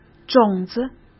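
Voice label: "种子", Mandarin Chinese; hsk; chinese-flashcards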